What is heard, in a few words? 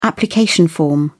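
"application form", British English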